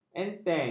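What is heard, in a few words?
"enteng", Malay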